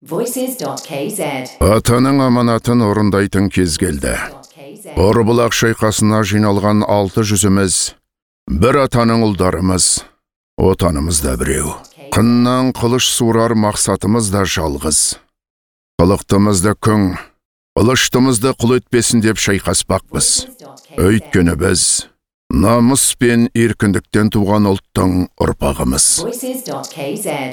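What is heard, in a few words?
Kazakh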